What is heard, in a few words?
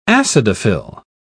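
"acidophile", British English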